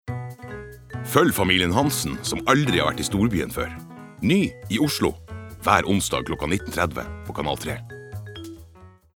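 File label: Norwegian promo finnmarksdialekt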